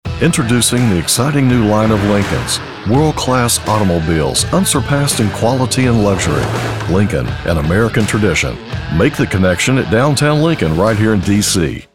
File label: Commercial Demo